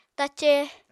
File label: "tace", apatani